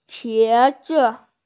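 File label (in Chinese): "qie2zi5", Mandarin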